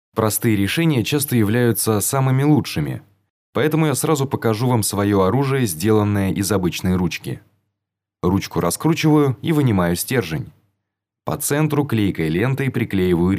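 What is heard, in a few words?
russian male; lektoring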